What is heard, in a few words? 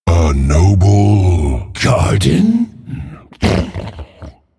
Male Wild God Happy Noblegarden